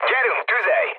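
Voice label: attack position, mood low, Hungarian; War Thunder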